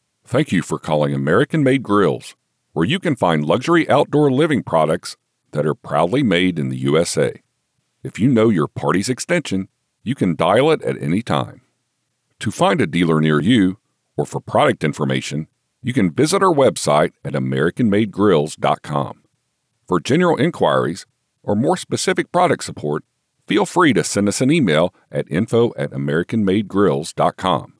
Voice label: IVR